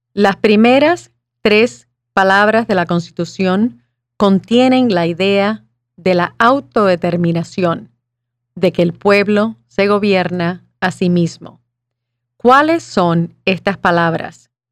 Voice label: Spanish